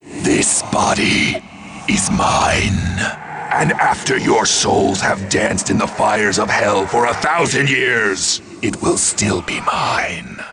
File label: demon voice; timesplitters future perfect